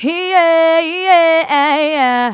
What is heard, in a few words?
phrase female